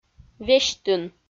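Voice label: "весьтын", Komi-Zyrian, female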